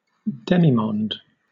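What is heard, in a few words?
"demimonde", British English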